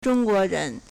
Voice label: "zhong1 guo2 ren2", Chinese